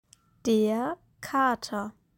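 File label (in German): "Der Kater", German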